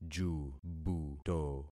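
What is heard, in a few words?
"jubuto", concatenated syllables; Affixing experiment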